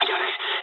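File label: Japanese attack position mood med; War Thunder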